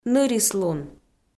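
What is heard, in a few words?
"нырислун", Komi, female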